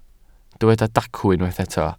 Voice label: "dacw", Welsh